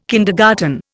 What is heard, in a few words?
"kindergarten", Indian